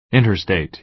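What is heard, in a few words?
"interstate", American English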